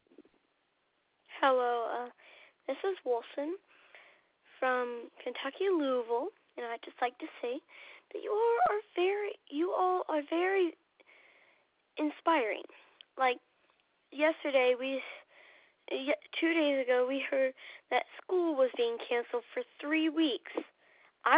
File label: Voicemail